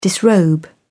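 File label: "disrobe", British English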